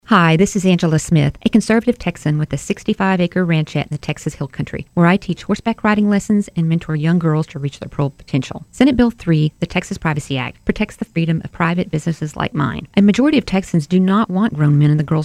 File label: PSA; TVR; TX VALUES ACTION